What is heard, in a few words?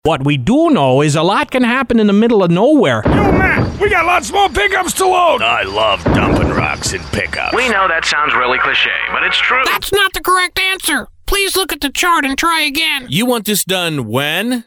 characters male